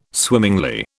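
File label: "swimmingly", British English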